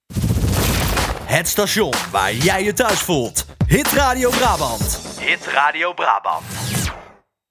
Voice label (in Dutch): Jingle Het station waar jij je thuis voelt; hitradio brabant